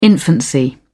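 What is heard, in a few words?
"infancy", British English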